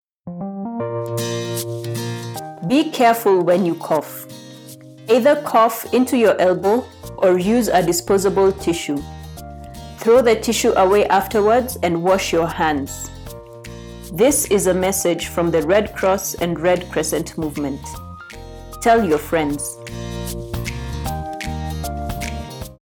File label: Covid19 Radio Spots ENG Female